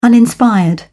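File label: "uninspired", British English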